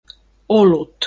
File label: "olut", Finnish